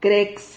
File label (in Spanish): "crex", Silabas